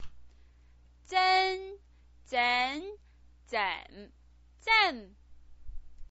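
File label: "zen", Mandarin Chinese pinyin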